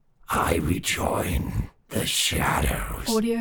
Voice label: undead pain vocal i rejoin the shadows